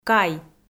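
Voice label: "кай" Komi, female; kpv audio dict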